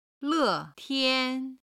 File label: "le4 tian1", chinese